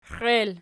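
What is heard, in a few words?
"xiel" nominative, Archi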